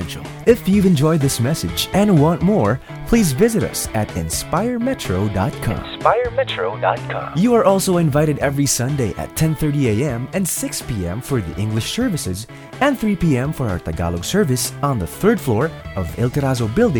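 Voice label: Podcast voice over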